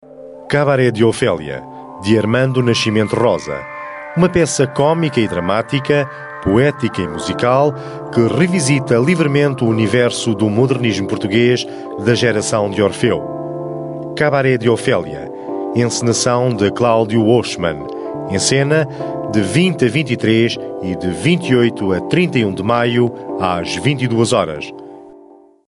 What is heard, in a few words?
European Portuguese M